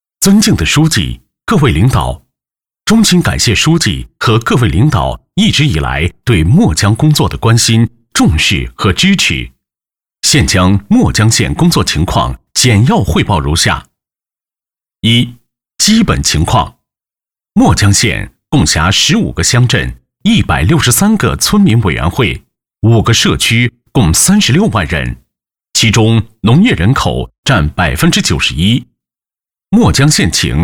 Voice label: 男25 专题干音 工作汇报样音, 国语男声配音; 央视大气专题; 墨江县

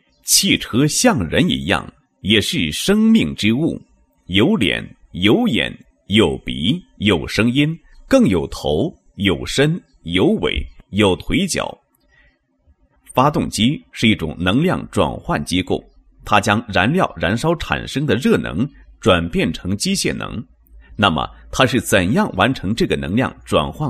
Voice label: 男 解说; 机动车原理及安全驾驶常识

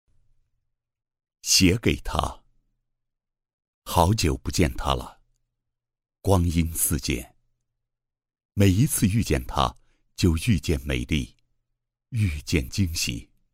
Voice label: 男, 宣传片; 38妇联 龙华

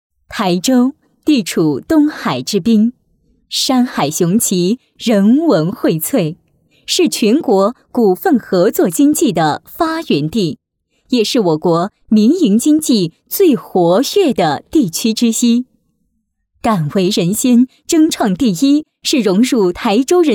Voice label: 女 专题 驾校 知性大气

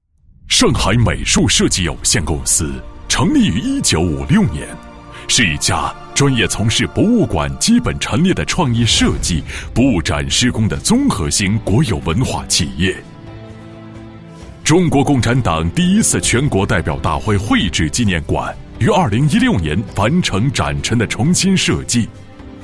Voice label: 男 企业 企宣片解说; 无限-上美企宣片解说

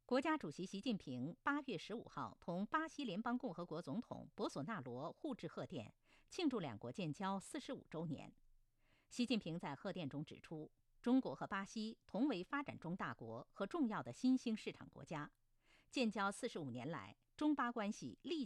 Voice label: 新闻

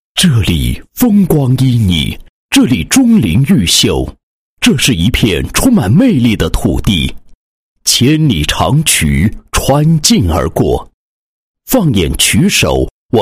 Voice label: 男 党建 党总支党建工作纪实; 首分局

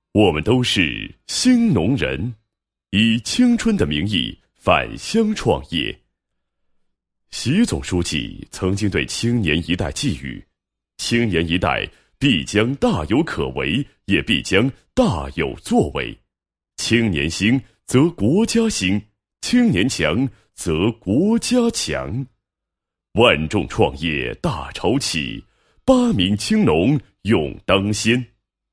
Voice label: 男 专题 宣传; 我们都是新农人 青农会

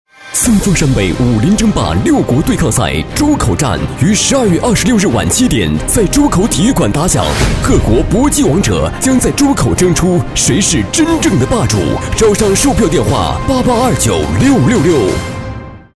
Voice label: 男 广告 磅礴大气; 武林风

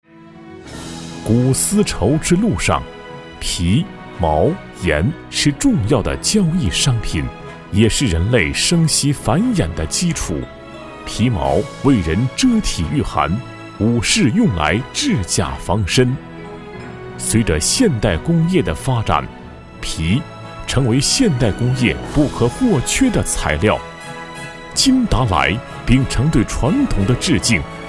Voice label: 男 宣传片 产品宣传; 金达莱

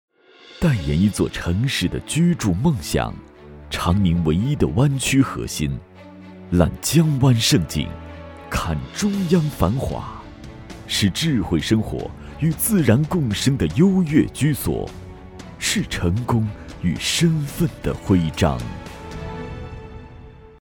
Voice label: 男 地产广告 大气时尚

